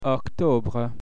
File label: "Oct", French; October; FrenchTutor; Months